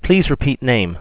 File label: please repeat name, eng; sgh-d720; AnyVoice